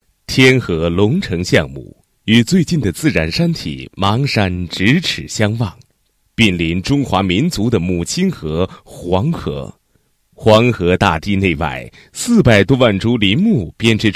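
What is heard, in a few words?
Chinese, male, corporate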